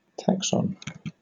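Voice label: "taxon", British English; pronunciations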